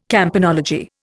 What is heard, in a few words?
"campanology", Indian